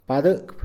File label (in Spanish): barrer, Mixe, hombre; Acciones; INALI